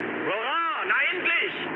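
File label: German enemy detected mood low, speech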